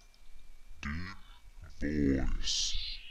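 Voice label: deep voice, voice clips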